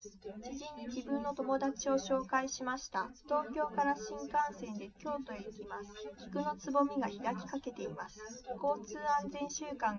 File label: test female liverec 250ms 1m src; SiSEC08; SiSEC underdetermined